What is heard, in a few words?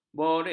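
"borik", Malay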